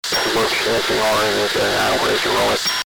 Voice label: cockpit radio communication

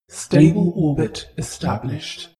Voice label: space shuttle female status report

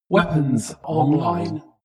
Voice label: female voice shuttle status report

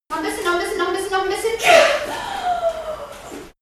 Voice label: birth nurse encouraging

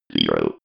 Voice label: robot voice zero

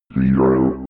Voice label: robot voice zero fx

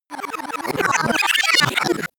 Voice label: glitch talk fx

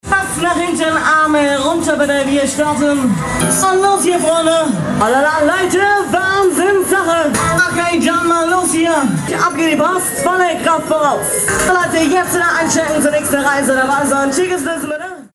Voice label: octoberfest animateur

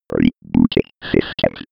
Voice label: old computer voice

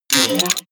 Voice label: robot voice short click

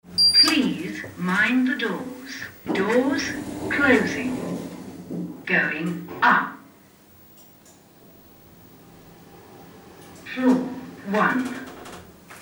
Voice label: elevator voice english

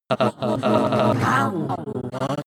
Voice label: stuttering voices

